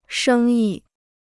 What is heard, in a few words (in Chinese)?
"生意", Chinese